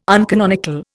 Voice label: "uncanonical", Indian